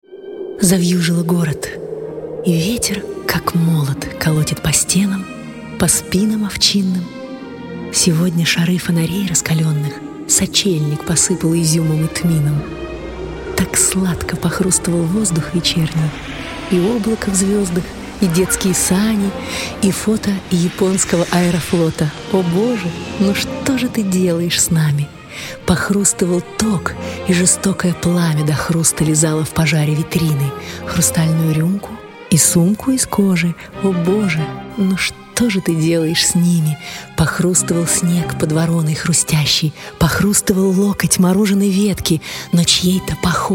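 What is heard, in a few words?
Russian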